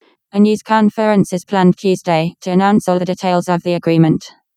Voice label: Building the voice only from spontaneous speech. The quality is not good.; Building voices from read speech vs. spontaneous speech